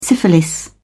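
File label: "syphilis", British English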